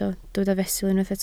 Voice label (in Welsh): "fesul", Welsh